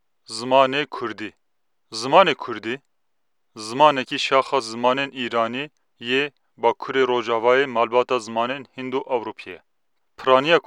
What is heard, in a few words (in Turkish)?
Kurtce Erkek Kurmanci; kurtce seslendirme; erkek sesler